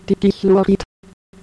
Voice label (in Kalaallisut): "tikilluarit", kalaallisut